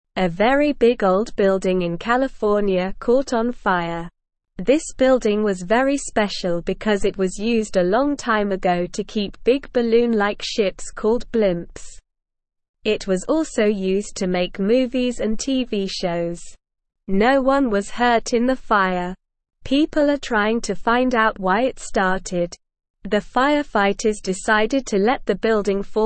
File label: English Beginner SLOW Reading; English Newsroom; Big Old Building in California Catches Fire